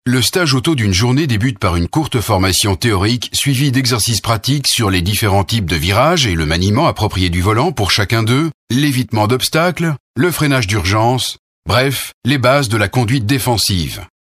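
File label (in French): Belgian French, male, narration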